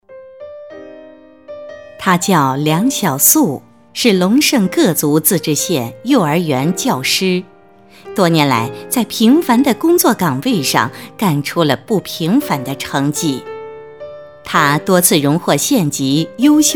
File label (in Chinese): 人物样 幼儿园教师加背景音乐